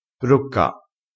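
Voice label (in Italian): "brocca", dialettale crespinese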